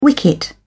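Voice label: "wicket", British English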